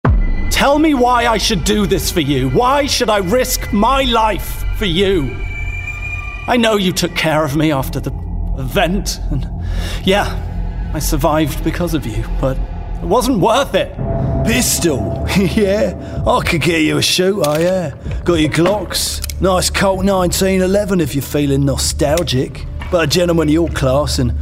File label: Gaming Character